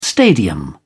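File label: "stadium", British English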